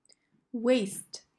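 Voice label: "waist", English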